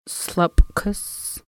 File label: "marysville", Lushootseed